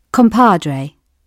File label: "compadre", British